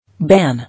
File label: "Ban", English